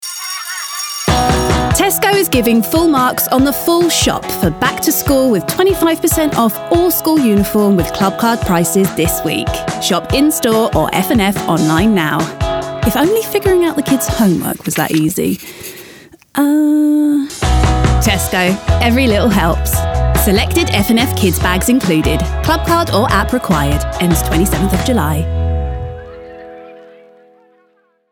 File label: Ad; Tesco